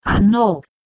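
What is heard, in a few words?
"h2nok", Proto-Indo-European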